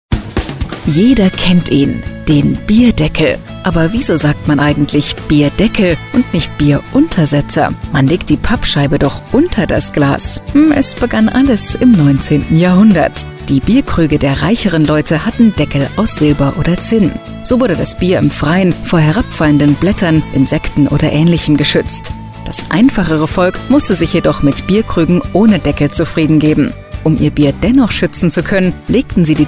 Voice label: Narration Feature

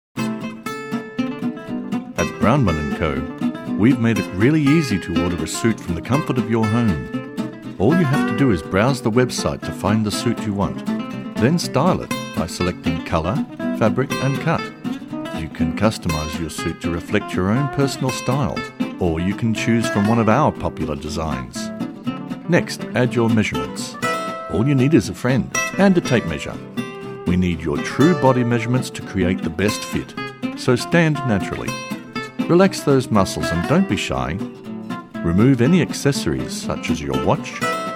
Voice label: Voice Over; Brownmen Co Suits

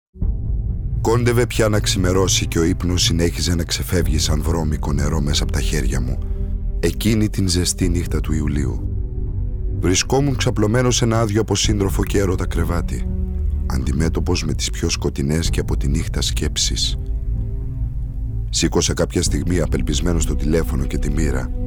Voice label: Audiobook Greek

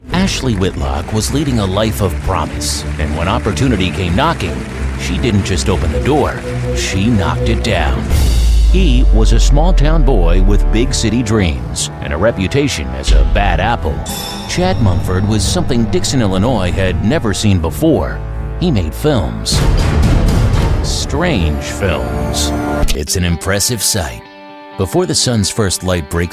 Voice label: documentary narration

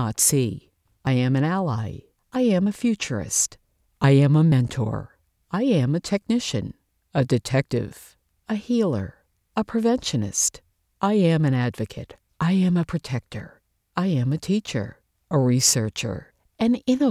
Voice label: Medical Narration I AM A NURSE

